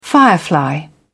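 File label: "firefly", British English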